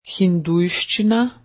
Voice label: Hindi, slovene